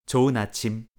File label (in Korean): "좋은아침", Korean; hello in korean